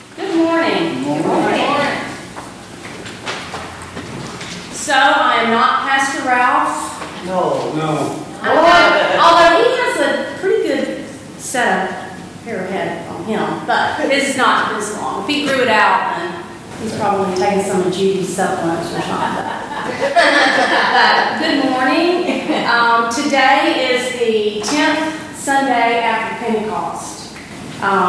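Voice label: Announcements, church service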